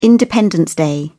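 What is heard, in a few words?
"independence day", British English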